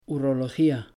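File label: "urología", Spanish; pronouncing c g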